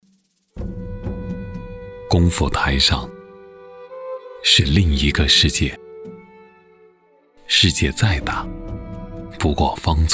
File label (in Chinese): 自然老成; 工匠